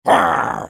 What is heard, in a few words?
effort, en US; Udyr